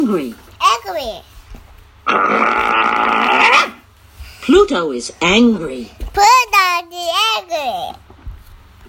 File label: angry